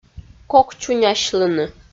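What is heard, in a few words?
"кокчунясьлыны", Komi, female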